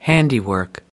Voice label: "handiwork", us